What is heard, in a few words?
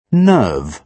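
"nerve", British English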